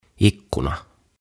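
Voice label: "Ikkuna", Finnish; Window; OTAVA KONSERNI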